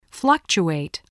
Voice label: "fluctuate", spelling